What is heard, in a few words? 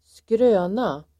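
"skröna", Swedish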